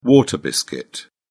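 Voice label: "water biscuit", British English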